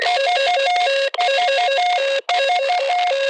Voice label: Enemy Alarm, Dialog Barks Battle